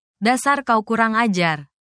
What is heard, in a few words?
indonesia, indonesian negative phrases